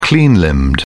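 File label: "clean-limbed", English, uk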